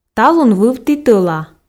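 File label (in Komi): талун вывті тӧла, Komi, female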